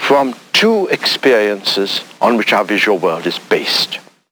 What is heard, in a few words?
LoFi Phrases, LoFi Vocals; Vengeance Samples